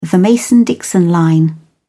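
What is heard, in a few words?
"mason-dixon line", British English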